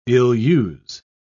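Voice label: "ill-use", British English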